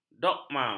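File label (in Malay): "dogma", Malay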